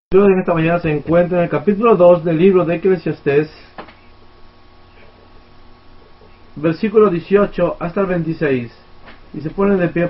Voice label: Spanish; RedeemingWork